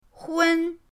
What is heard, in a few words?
"hun1", pinyin